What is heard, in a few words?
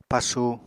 "pasu", Apatani